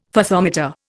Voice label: "Fathometer", Indian